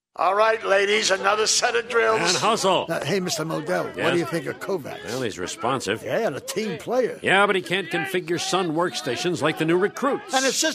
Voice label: radio; OEMid-Atlantic Mini Camp; ravens